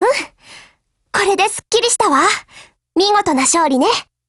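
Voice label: Japanese, Voice; GF Alarm; Saiga12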